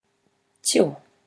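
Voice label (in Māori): "tio", Māori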